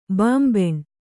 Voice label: "ಬಾಂಬೆಣ್", kannada